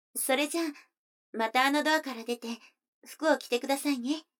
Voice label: 女の子台詞

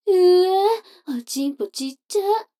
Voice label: 女の子 台詞, ASMR

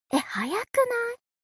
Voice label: mob 女子 台詞

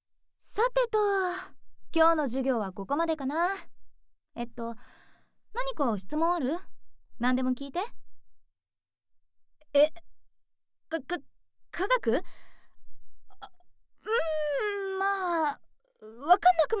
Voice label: 元素記号ASMR・耳吹き; 元素記号を覚えよう

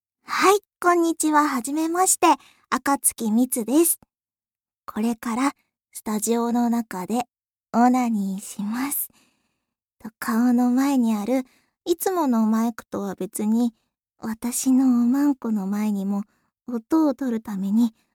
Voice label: ごあいさつ スタジオでオナニー実演, ASMR

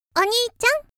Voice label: 「お兄ちゃん」, 少女, ASMR; 元気な少女

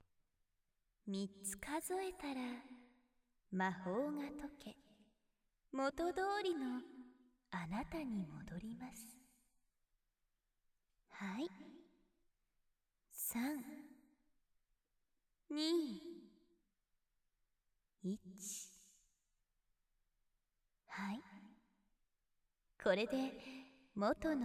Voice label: 催眠解除, ASMR

